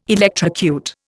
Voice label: "electrocute", Indian